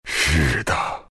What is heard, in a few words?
move, zh